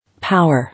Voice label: "Power", English